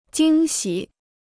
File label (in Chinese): "惊喜", Chinese